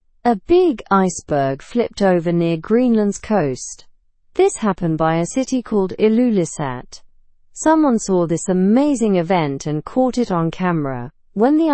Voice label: learn english through news lower intermediate slow; huge iceberg flips near greenland coast